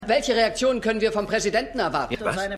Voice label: Reporter; Reporter Ted

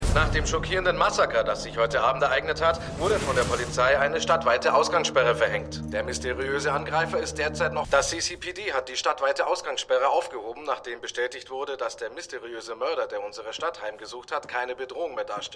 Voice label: Nachrichtensprecher; The Flash

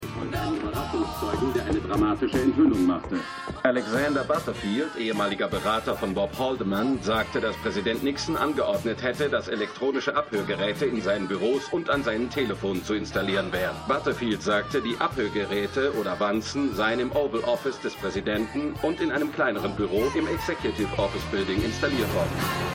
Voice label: Nachrichtensprecher; Gaslit

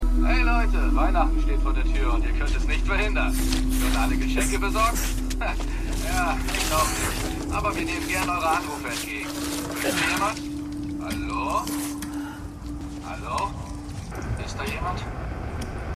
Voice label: Radiosprecher; evtl Toter Travis

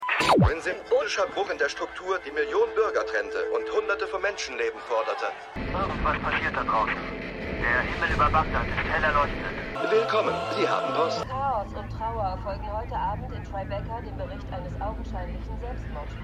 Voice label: TV Sprecher Nachrichtensprecherin; Bernard Shaw; AOL

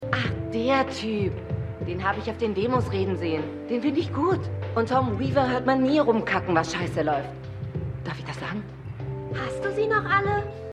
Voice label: Junge Mutter Tochter; Stimmproben; Everythings Trash

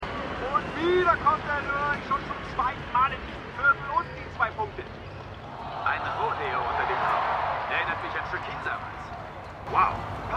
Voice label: Eishockeykommentator; Suspicion

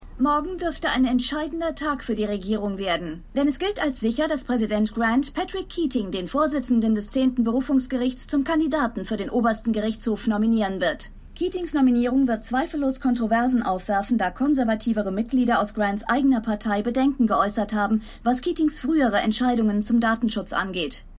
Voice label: Nachrichtensprecherin

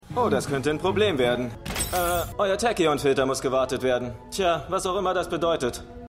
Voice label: Sexy Feuerwehrmann, Stimmproben

